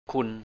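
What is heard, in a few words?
"khun" Thai, 'you' or 'Mr., Mrs., Miss'; คุณ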